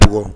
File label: "bugɔ", Bambara; actions